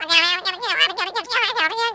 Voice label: blabber